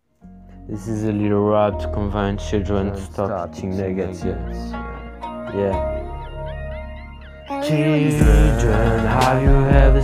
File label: rap podcast